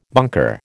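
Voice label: "bunker", American English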